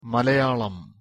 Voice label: pronunciation ml malayalam മലയാളം